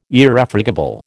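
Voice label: "Irrefragable", American English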